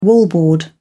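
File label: "wallboard", British English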